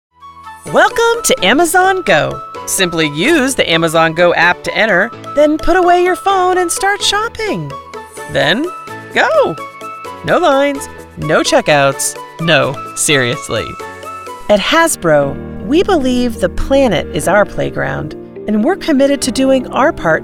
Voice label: Narraion